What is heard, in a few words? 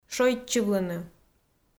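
"шойччывлыны", Komi, female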